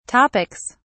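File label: "topics", British English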